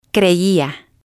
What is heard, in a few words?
"creia", spanish